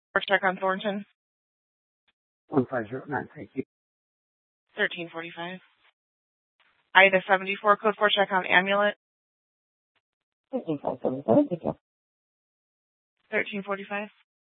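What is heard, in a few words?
Police Department Dispatch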